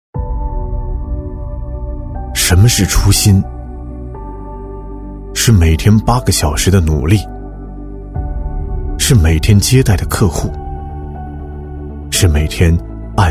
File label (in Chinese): 男 走心宣传 银行微电影 低沉走心; 男189-大气主流; 质感磁性